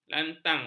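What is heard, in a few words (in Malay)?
"lantang", Malay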